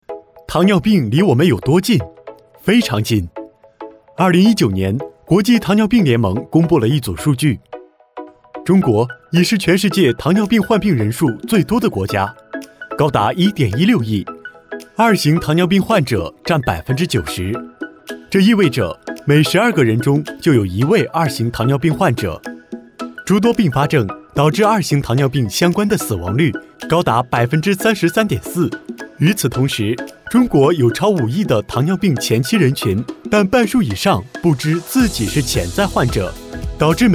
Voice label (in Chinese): 科技感